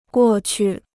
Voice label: "过去", Chinese